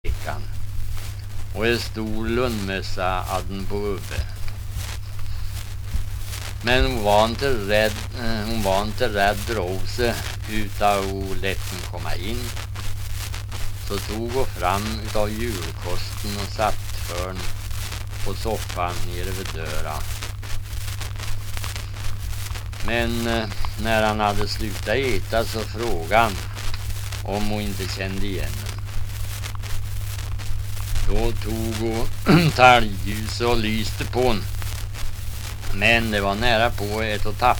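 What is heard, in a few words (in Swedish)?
Riala Uppland, dialekter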